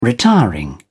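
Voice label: "retiring", British English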